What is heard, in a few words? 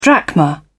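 "drachma", British English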